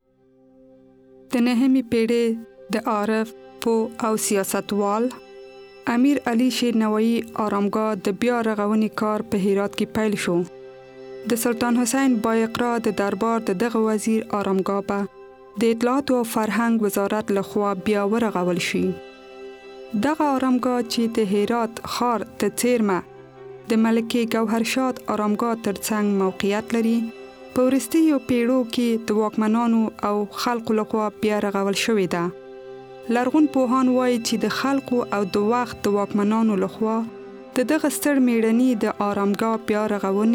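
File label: Pashto; GoLocalise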